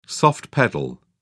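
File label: "soft-pedal", British English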